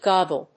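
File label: "goggle", English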